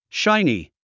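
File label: "shiny", English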